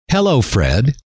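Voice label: "hello", male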